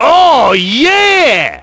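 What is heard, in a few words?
"oh yeah", male; Splashdown; XBOX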